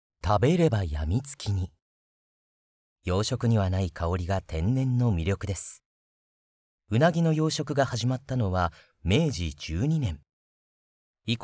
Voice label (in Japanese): 天然うなぎナレーション